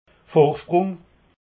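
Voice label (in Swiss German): "vorsprung", Alsatian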